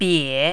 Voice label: "bei3", mandarin